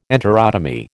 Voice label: "enterotomy", American